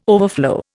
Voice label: "overflow", Indian

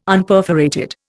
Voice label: "Unperforated", Indian

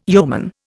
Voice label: "yeoman", Indian

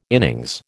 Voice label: "innings", American

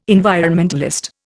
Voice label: "environmentalist", Indian

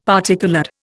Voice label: "particoloured", Indian; Dictionary

